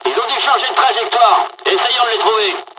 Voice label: dialog, dialogs french; War Thunder; midway

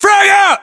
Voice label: order attack grenade, US; localized english; battlechatter

